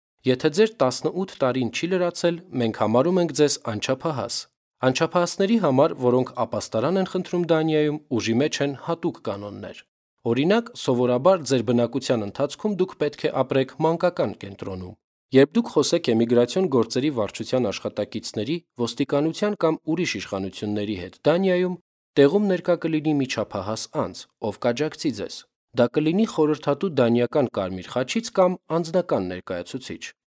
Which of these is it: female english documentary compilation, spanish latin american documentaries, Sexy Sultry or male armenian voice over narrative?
male armenian voice over narrative